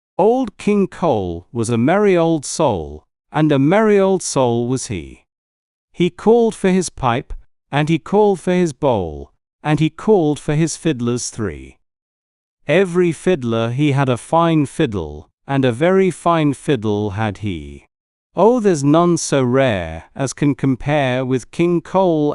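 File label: male British, classic rhymes; Old King Cole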